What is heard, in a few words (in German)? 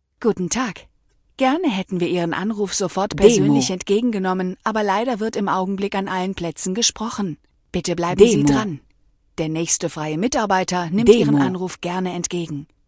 Ansage Automatische Vermittlung Plaetze belegt